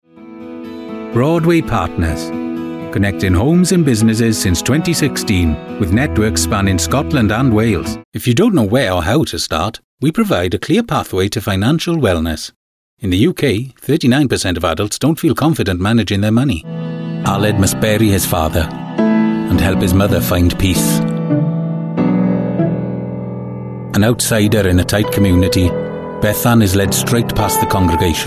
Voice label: Welsh Accent